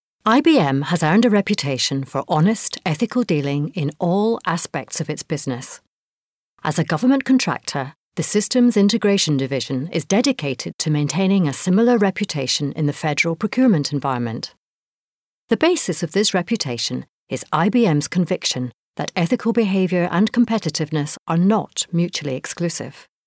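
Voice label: Scottish English, female, corporate; accent of scotland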